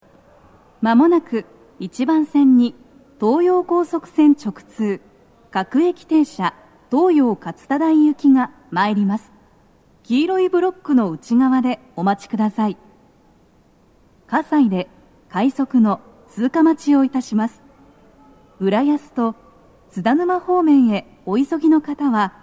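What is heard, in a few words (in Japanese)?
接近放送, 女声, 各駅停車 東葉勝田台行き, 葛西で、快速 津田沼行きの通過待ちを致します; BOSEでの収録です。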